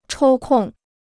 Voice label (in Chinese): "抽空", Chinese